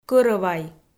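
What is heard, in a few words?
"кӧрӧвай", Komi, female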